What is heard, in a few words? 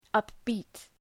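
"upbeat", English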